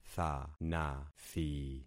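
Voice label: "thanathi", concatenated syllables; Affixing experiment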